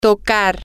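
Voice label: Spanish, female; to Play Instruments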